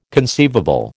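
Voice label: "conceivable", American English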